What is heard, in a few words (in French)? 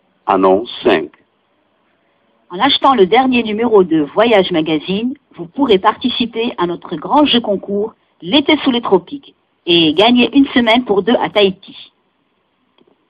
audio francais